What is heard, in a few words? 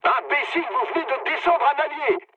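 player killed ally, mood low, French; War Thunder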